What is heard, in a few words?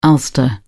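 "ulster", British English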